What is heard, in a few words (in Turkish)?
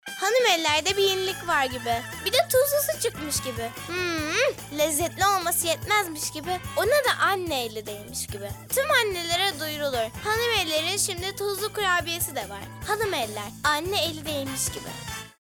Reklam